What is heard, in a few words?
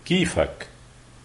how are you, lebanese arabic